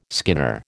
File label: "skinner", American